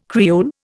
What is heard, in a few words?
"Creole", Indian